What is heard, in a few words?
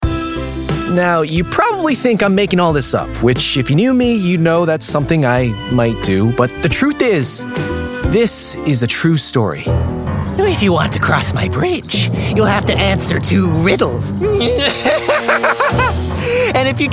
voiceover animation; New York